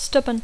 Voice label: "stubborn", English; Dacco

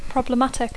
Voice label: "problematic", English; Dacco

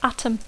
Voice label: "atom", English; Dacco

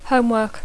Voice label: "homework", English; Dacco

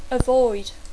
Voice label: "avoid", English; Dacco

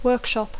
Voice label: "workshop", English; Dacco

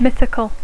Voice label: "mythical", English; Dacco

